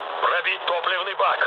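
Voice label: tank damaged, mood low, Russian; War Thunder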